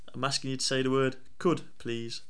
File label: Northern could